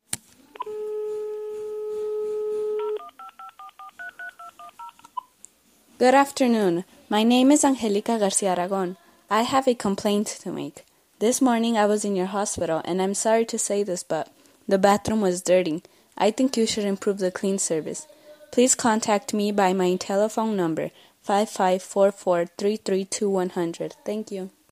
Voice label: telephone complaint voicemail